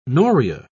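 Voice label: "noria", British English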